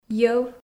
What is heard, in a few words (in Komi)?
"йӧв", Komi